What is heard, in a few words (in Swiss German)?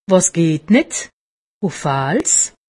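"geht nitt", Alsatian; medecine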